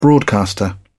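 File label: "broadcaster", British English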